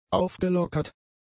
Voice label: "aufgelockert", German; adjectives

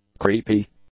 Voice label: "creepy", English; adjectives

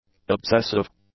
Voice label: "obsessive", english; adjectives

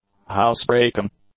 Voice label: "housebreaking", English; nouns

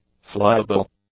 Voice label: "flyable", english; adjectives

